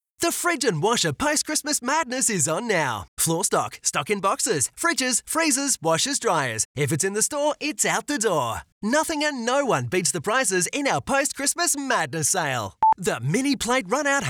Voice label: Excited

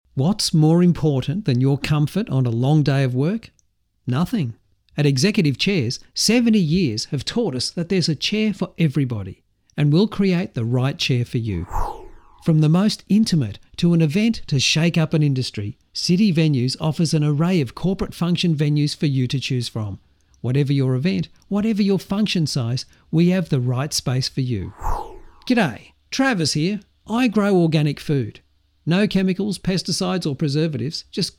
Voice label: Conversational

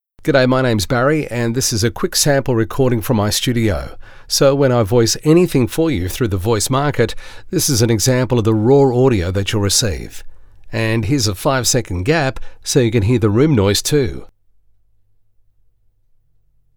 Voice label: Studio Sound Check

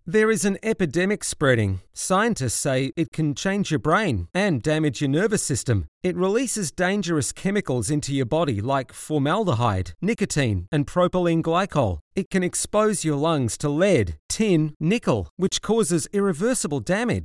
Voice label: Natural

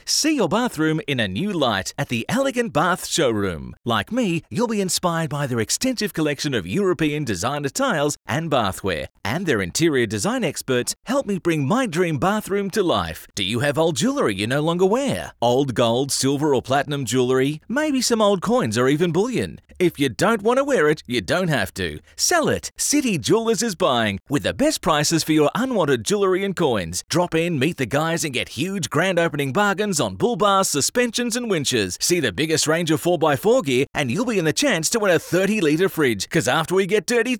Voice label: Retail Friendly

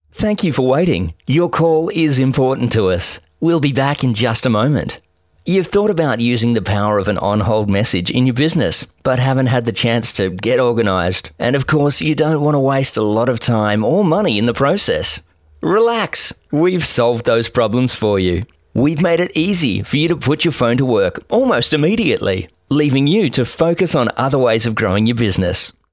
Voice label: ON HOLD

